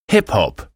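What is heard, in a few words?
"hip hop", English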